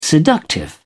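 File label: "seductive", British English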